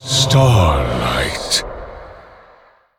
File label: Male Elemental